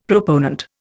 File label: "proponent", Indian; Dictionary